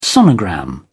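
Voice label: "sonogram", British English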